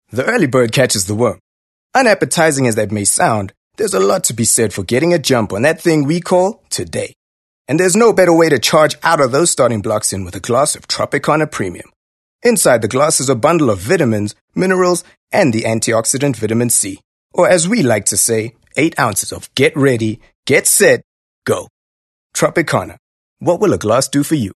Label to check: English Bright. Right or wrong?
Right